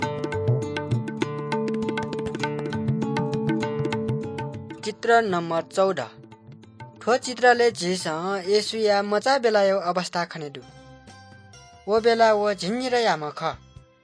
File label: good news newari balami